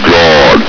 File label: "guard", Combine soldier; hl2